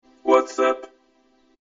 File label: vocoder